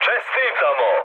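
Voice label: Serbian player killed enemy mood high, speech; War Thunder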